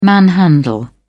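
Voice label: "manhandle", British English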